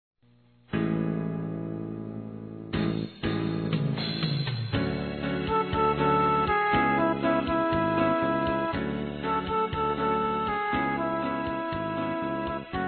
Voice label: ADVOGADA F; fem

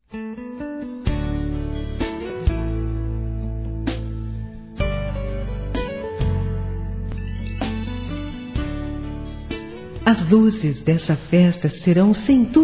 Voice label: NATAL ROMANTICO F

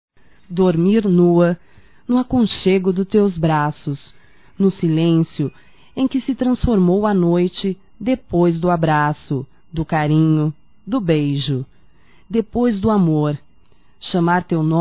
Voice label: ROMANTICA SENSUAL(F), fem

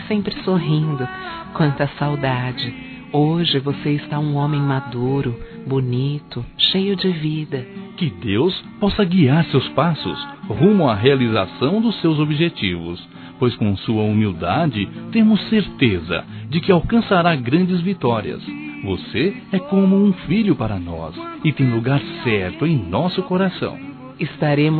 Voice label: Aniversário Sobrinho Dua Vozes

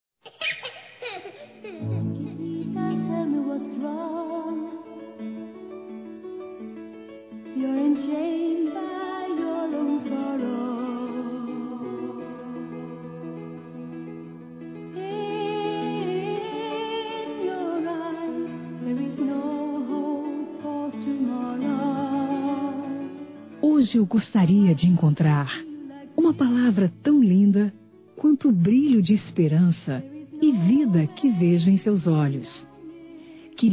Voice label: Para Criança Geral Feminino, Aniversário Infantil; Telemensagens

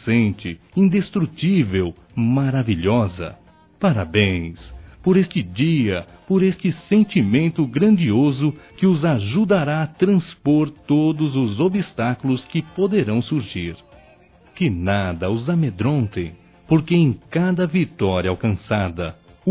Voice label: Bodas de Papel (01 Ano) Masc, Telemensagens; Bodas e Casamento

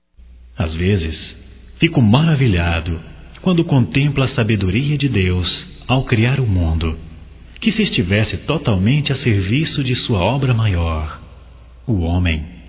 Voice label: Agradecendo Amizade Masculino; Convites e Agradecimentos; Telemensagens 2017

